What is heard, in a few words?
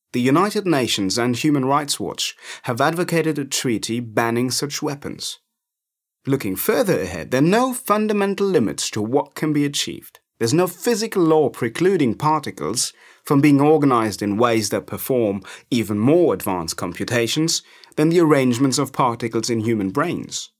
Artificial intelligence english; LOFT VOICES